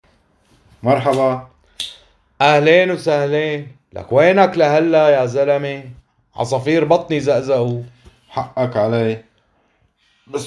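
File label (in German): Hörübung Restaurant, syrisch-arabischer Dialekt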